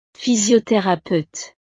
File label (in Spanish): "physiotherapeute", frances; profesiones